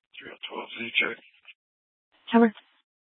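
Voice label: police dispatch, Scottsdale PD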